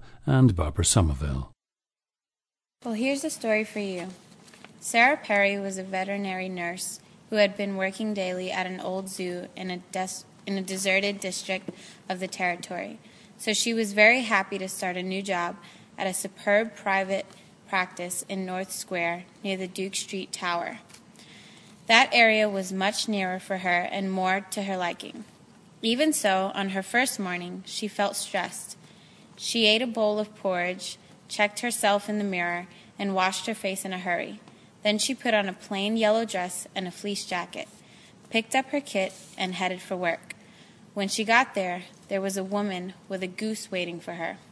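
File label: new york, International Dialects of English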